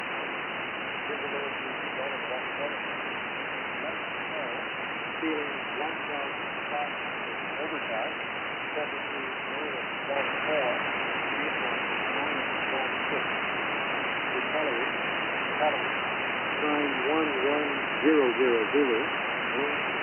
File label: 10051khz Volmet; Gander; X-One-AD370